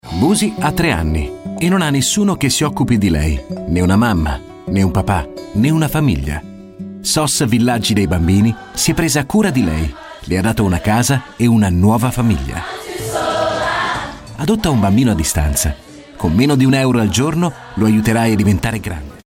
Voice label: it commercial